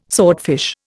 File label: "swordfish", Indian